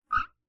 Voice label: "Sha" muffled